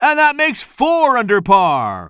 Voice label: commentator